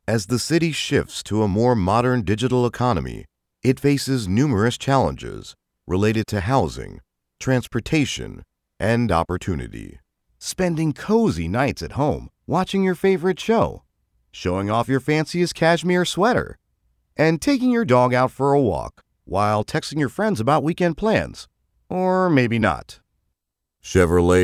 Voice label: Dry

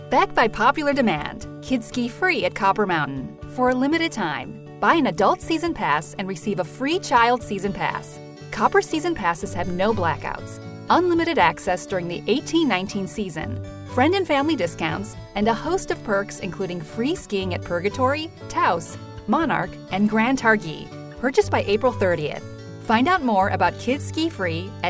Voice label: Radio

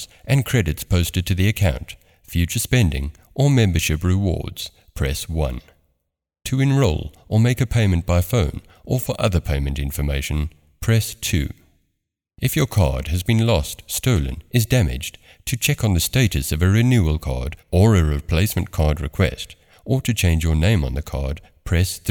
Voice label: IVR American

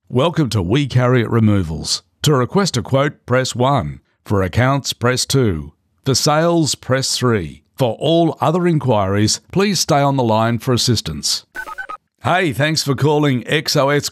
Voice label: IVR PHONE MESSAGE

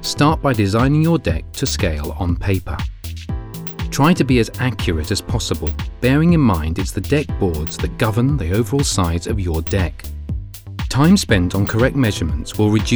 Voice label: Video Explainer

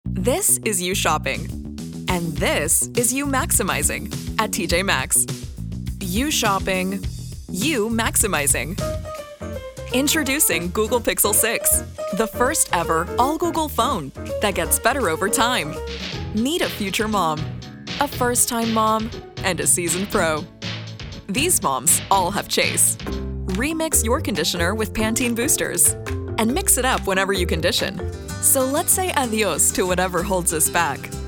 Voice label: Commercial Compilation

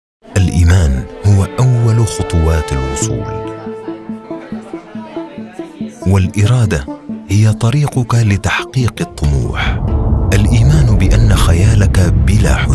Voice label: Narration voice over

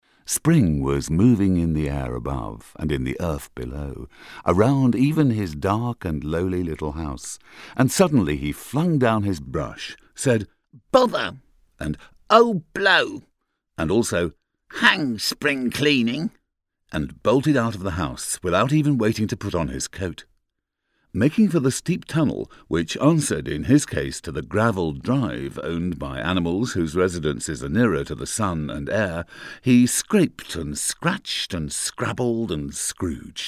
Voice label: audio book

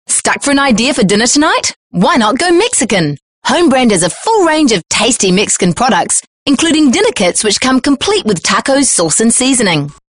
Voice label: TVC Natural

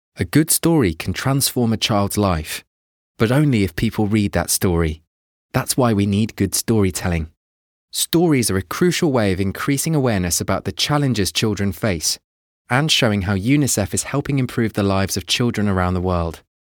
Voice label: Soft Storytelling

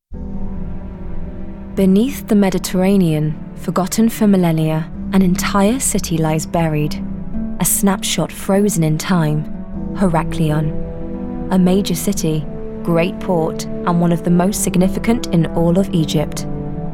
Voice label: narratives

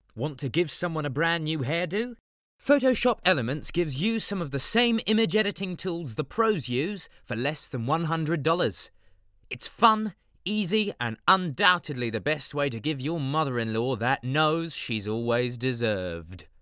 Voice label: Commercial UK

